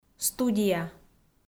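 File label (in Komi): "студия", Komi, female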